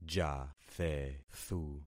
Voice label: "jathethu", concat syllables; Affixing experiment